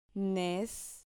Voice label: "nes", Spanish